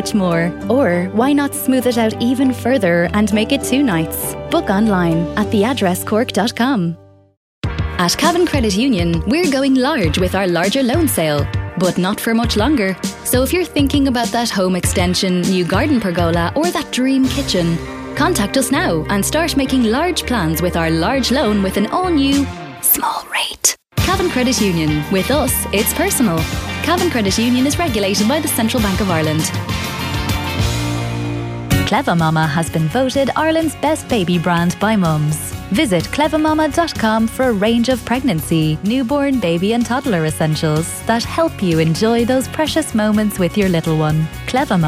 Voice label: irish voice over montage